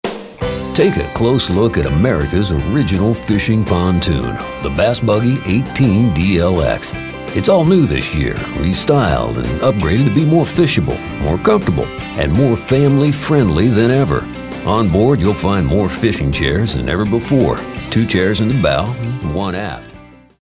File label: folksy